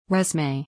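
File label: "resume", English; 1 摘要，梗概(こうがい), レジュメ.; 2《主に米国で用いられる》 (就職希望者の)履歴書.; resumé /rézəmei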